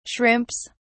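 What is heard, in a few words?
"shrimps", British English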